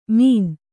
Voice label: "ಮೀನ", Kannada